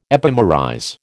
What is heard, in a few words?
"epimerize", American